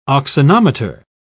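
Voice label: "auxanometer", English, British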